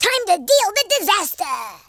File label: "fire", American Wrestler